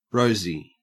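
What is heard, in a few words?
"rosy", Australian English; pronunciations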